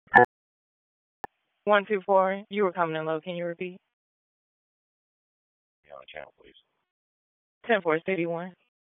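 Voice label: Police Dispatch; Raleigh Police Northwest District Disp; wake; p25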